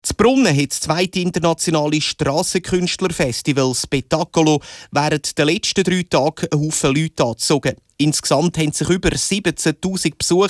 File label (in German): radio kurzmeldung